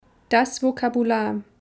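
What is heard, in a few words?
"das Vokabular", German; Kapitel 2; Alphabet erste Schritte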